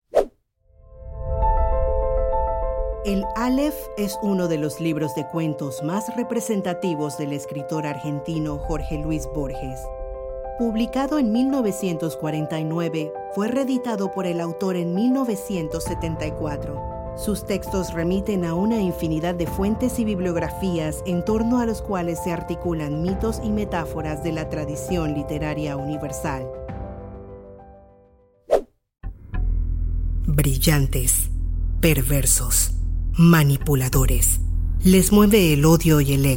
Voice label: narrativa

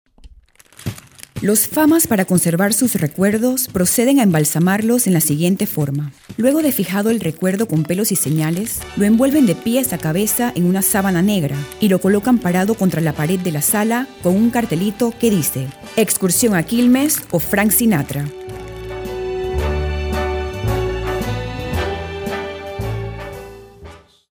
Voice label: narra

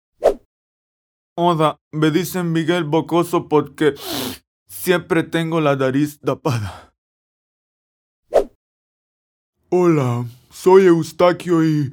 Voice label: personajes

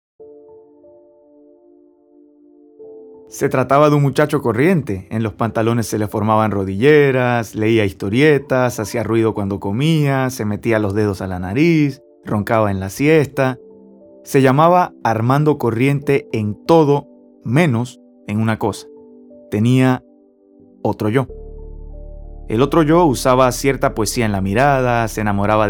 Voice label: narrativa

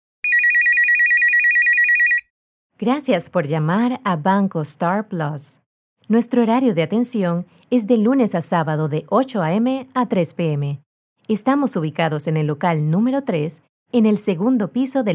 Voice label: contestadora esp

